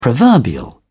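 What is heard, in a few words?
"proverbial", British English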